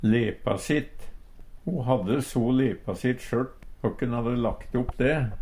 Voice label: lepasitt, Numedalsmål; Personleg utstyr, klede, sko; Adjektiv

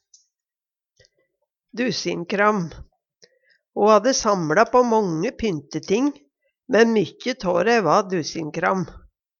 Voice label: "dusinkram", Numedalsmål

